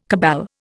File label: "cabal", Indian